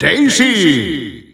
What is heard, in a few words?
Dutch Announcer; SSBU